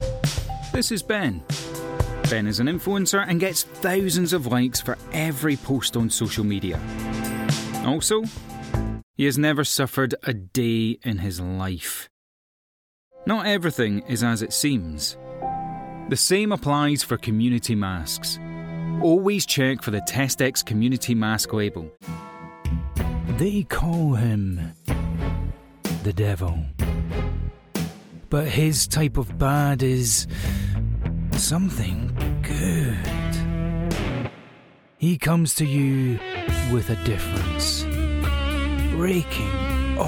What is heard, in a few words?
Commercial